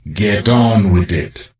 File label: NPC Death Knight Troll Male Greeting